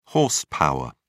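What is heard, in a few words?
"horse power", British English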